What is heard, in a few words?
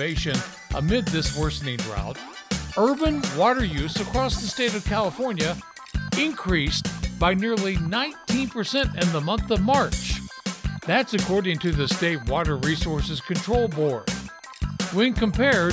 NEWS